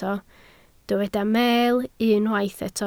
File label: "meel", Welsh